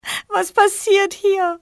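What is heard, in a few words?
going on, civ, female; XBOX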